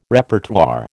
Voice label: "Repertoire", American